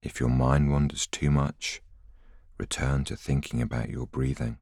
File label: voiceover